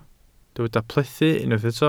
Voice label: "plethu", Welsh